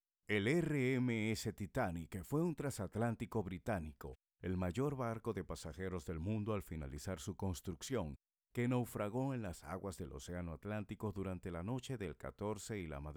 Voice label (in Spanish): documental